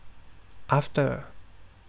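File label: "after", Thai, male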